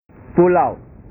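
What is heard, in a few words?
"pulau", Indonesian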